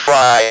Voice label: speak and spell "TRY"